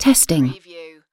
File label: UK female "testing"; lynne publishing